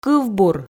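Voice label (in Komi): "кывбур", Komi, female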